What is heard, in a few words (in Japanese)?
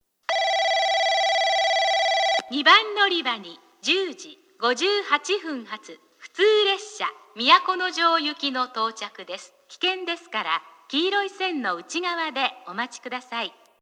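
2番のりば接近放送（都城行き）, 女声放送; 伊集院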